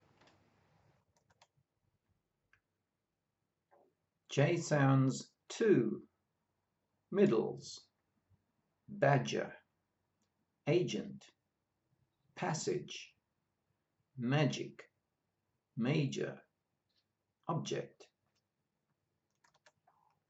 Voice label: J sounds, pronunciation of australian english

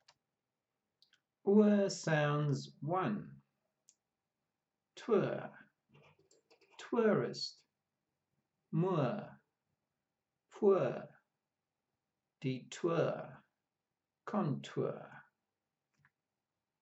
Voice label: Our sounds, pronunciation of australian english

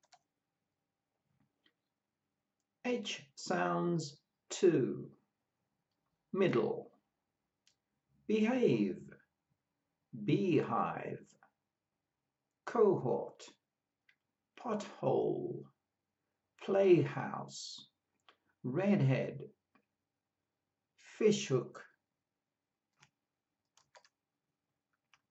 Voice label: H sounds, pronunciation of australian english

